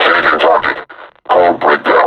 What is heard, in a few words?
announce attack cover, combine suppressor; Half Life Alyx